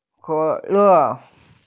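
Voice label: "ke3le4", Mandarin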